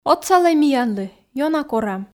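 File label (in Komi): отсалӧй миянлы ёна корам, Komi, female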